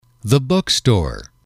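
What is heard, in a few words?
"book store", English, male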